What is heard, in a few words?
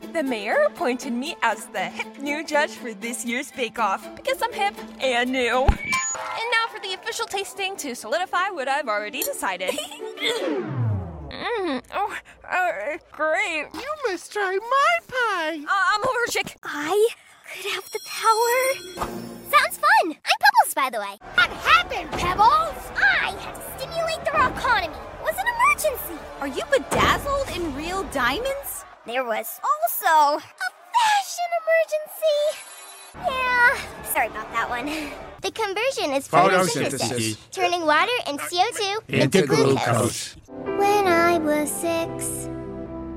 VO Animation Teen Preteen